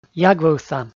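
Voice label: "ягвывса", Komi, male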